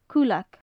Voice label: "cuileag", Gaelic; Faclan Nàdair

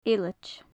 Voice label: "eilid", gaelic; Faclan Nàdair

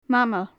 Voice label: "mamail", gaelic; Faclan Nàdair

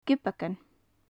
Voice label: "gibeagan", gaelic; Faclan Nàdair

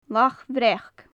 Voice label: "lach bhreac", Gaelic; Faclan Nàdair

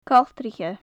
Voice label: "coltraiche", gaelic; Faclan Nàdair

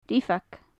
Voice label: "riabhag", Gaelic; Faclan Nàdair

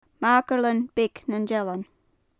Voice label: "magairlean-beag nan dealan", gaelic; Faclan Nàdair

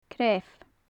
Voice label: "creamh", gaelic; Faclan Nàdair

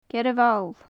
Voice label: "gearra bhall", gaelic; Faclan Nàdair

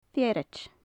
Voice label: "fearaid", gaelic; Faclan Nàdair

